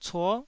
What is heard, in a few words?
"cuo9", Taiwanese syllable